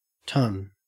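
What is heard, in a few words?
"ton", Australian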